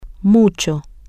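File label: very a lot of, spanish, female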